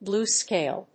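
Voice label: "Blue scale", English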